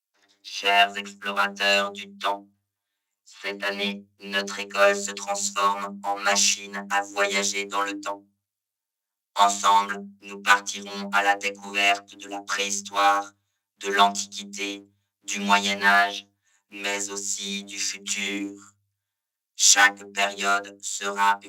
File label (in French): Message du robot